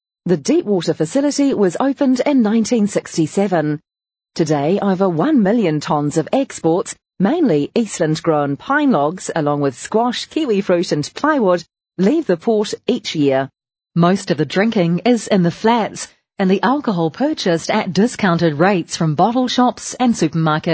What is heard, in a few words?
American English, F